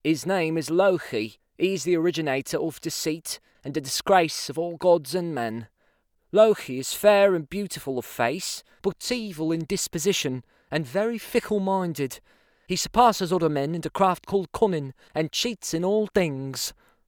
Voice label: Scouse

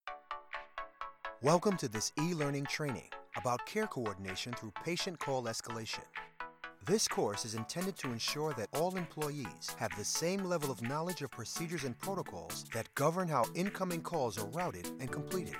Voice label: Elearning Narration, en-GB

